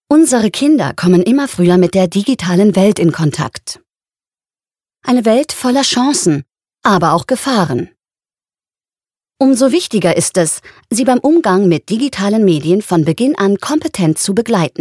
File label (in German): imagefilm sichere kids